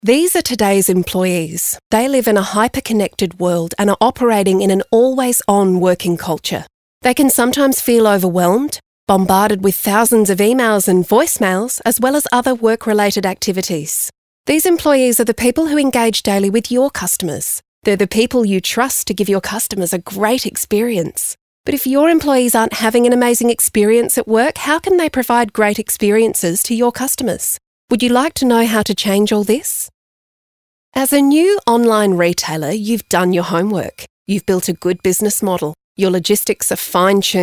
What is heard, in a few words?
Corporate Narration